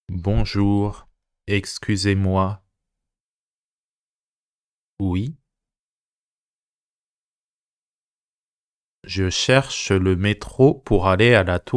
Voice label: Conversation slow